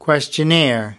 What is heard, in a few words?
"questionnaire", us